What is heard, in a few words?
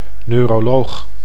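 "neuroloog", Dutch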